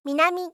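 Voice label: "minami", Japanese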